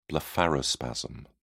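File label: "blepharospasm", British English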